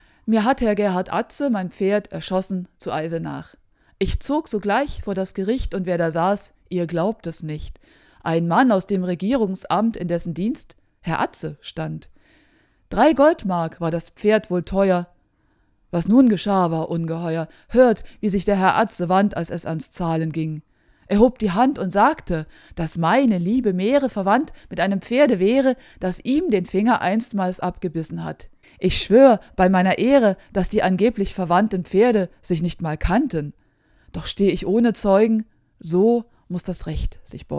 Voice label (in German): hochdeutsch; Walther von der Vogelweide